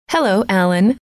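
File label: hello, female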